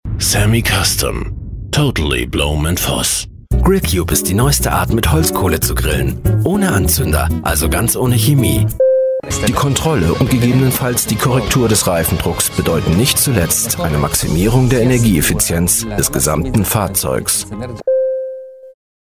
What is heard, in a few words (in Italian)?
Voce Tedesca